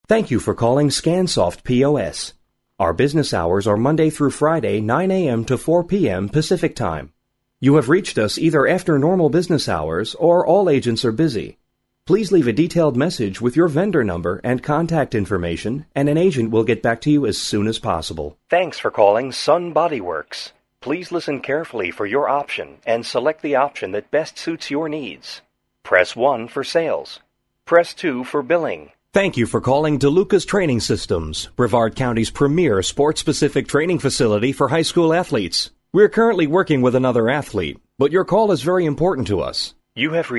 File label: male US telephone; uk sound studios